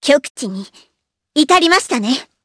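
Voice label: voice, Japanese; Hanus; kingsraid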